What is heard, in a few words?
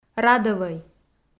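"радӧвӧй", Komi, female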